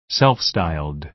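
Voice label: "self-styled", American English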